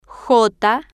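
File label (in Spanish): "j", spanish, female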